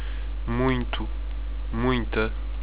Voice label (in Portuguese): "Muito (m), Muita (f)", Portuguese; A lot